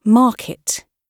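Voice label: "market" spoken, British English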